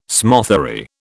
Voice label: "smothery", British

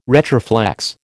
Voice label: "retroflex", American

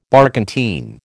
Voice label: "barkentine", American

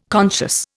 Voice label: "conscious", Indian

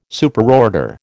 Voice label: "Superorder", American

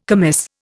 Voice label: "commis", Indian

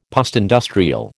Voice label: "postindustrial", American

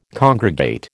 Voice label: "congregate", American

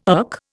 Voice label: "irk", Indian

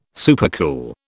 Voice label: "supercool", British

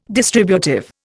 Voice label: "distributive", Indian

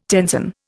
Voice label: "tenzon", Indian

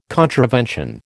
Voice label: "contravention", American

